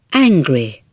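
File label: "angry", English; speaking dictionary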